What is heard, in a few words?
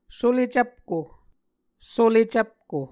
"sule cvpko", Muscogee